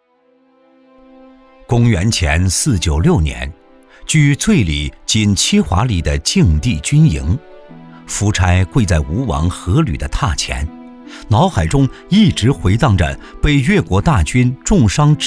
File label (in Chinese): 男 纪录片 讲述历史; 越王勾践